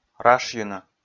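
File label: "рашйыны", Komi, male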